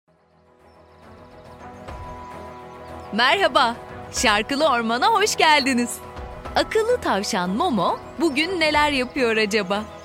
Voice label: dubbing narrator little girl and boy